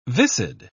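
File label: "viscid", English, British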